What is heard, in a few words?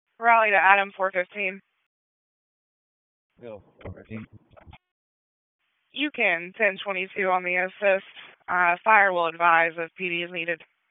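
Raleigh Police Southeast District Dispatch; wake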